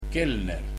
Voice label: Waiter, estonian